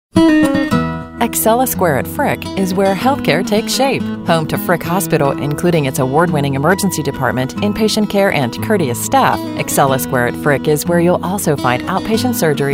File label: American Female